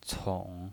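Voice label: "cong", Mandarin Chinese pinyin voice, third tone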